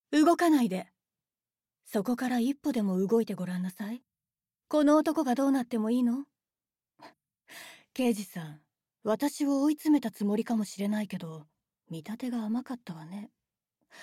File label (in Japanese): 外画サンプル